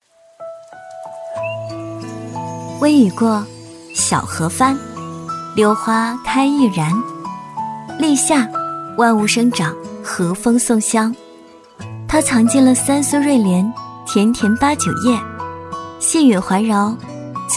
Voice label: 女-走心旁白; 立夏; 房琪风格

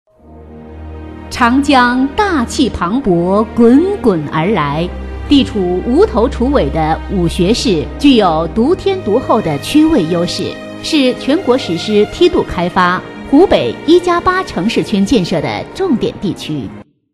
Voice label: 女, 大气专题, 稳重; 大气稳重; 沉稳大气

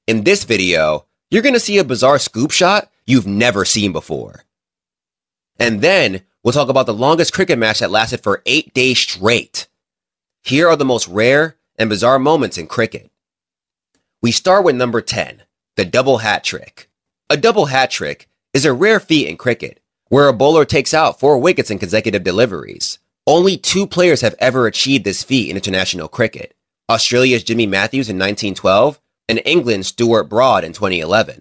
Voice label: 美式男声

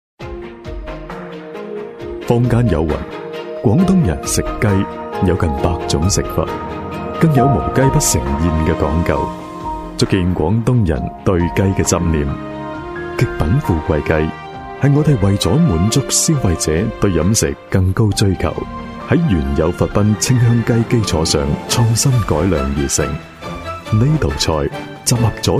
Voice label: 男 粤语配音纪录片 磁性讲解; 成熟稳重

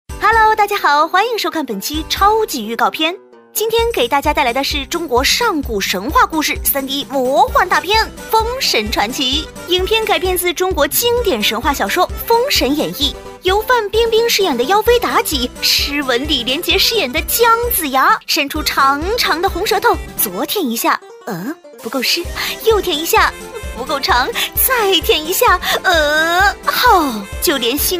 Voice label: 女 飞碟说 活泼搞怪; 超级预告片

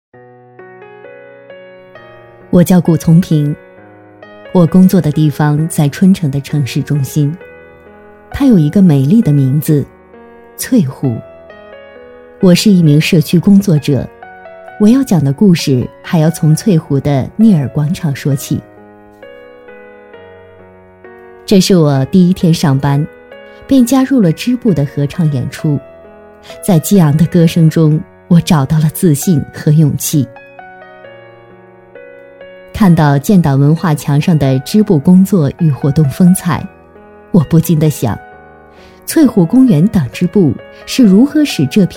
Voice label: 女, 人物独白, 社区工作者独白, 走心; 女31-人物独白-《社区工作者独白》-走心

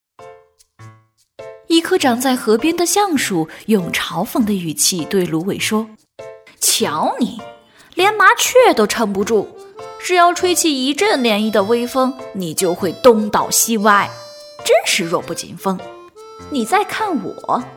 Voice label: 女 绘本故事 一人饰几个角色

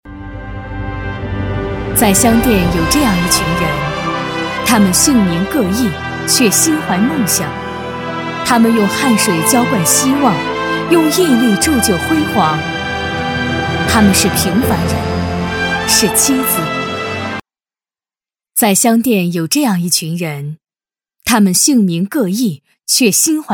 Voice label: 女 专题 人物专题抒情; 大气质感; 沉稳大气

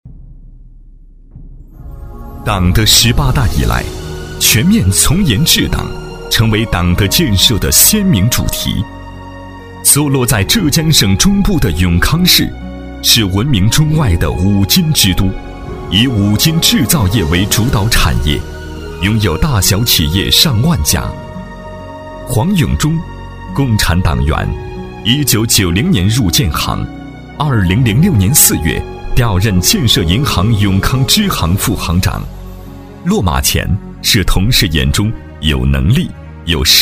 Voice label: 男, 党建专题, 商会 大气通透; 厚重磁性; 大气浑厚; 长寿商会

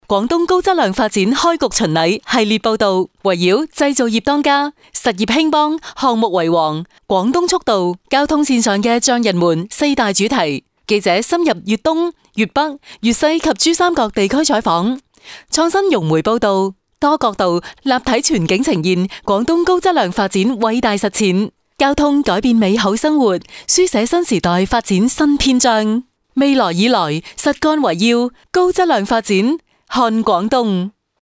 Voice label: 女, 粤语, 高质量巡礼宣传

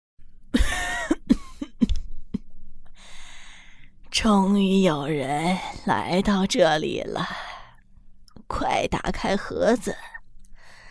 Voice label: 女 角色老太太; 《鬼屋NPC》

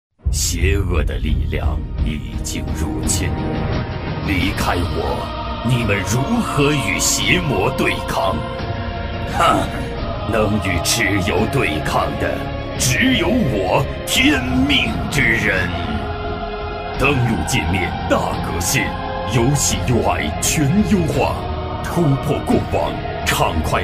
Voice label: 男 游戏CG 游戏宣传; 透亮故事感; 大气浑厚; 三界激战

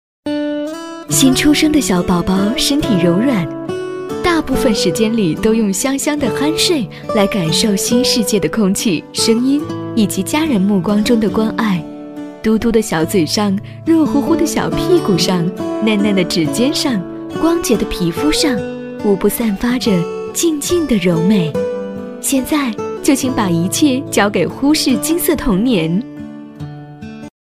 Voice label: 女, 宣传片配音, 学校教育, 幼儿园, 亲切力; 深情感人

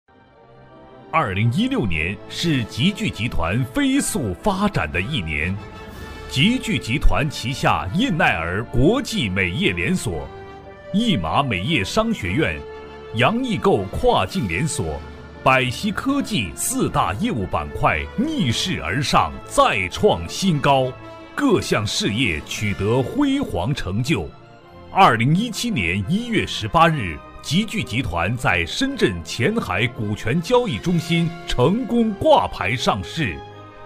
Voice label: 男 企业宣传 激情奋发; 男60-角色方言; 大气浑厚

